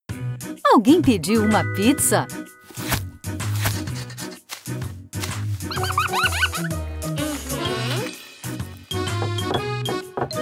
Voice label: portuguese brazilian animation